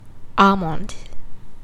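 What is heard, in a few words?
"almond", Chinese female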